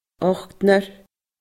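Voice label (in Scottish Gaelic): "ochdnar", Scottish Gaelic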